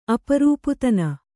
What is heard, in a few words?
"ಅಪರೂಪದಿನ", Kannada